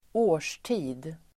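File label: "årstid", Swedish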